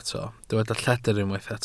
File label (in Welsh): "lledr", Welsh